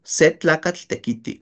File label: se tlakatl tekiti, Náhuatl; un hombre trabaja; a man works